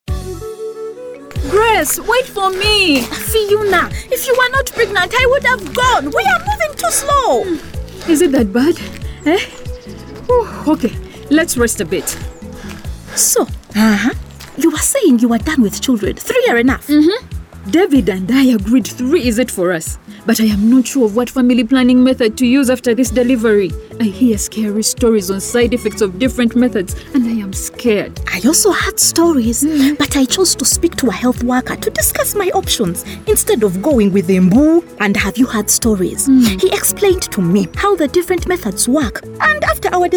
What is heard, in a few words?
IUD Radio Spot English